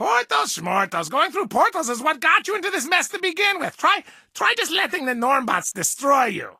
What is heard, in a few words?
English Voice